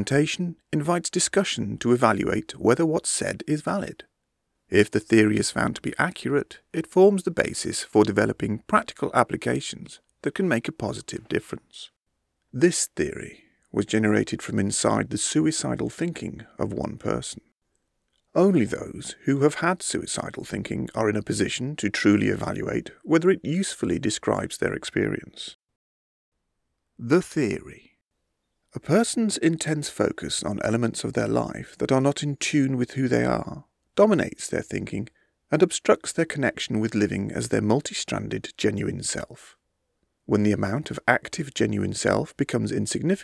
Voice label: explainer reel